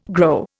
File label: "grow", Indian